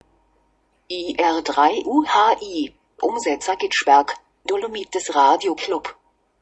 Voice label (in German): Kennung deutsch; Gitschberg